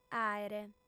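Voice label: "àere", Sardinian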